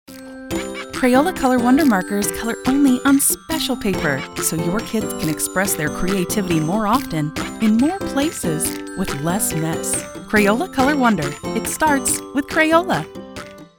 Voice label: Caring, Friendly, Conversational, Bright, Approachable, Female Voice; Crayola